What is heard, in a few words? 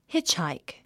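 "hitchhike", English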